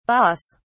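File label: "baas", British English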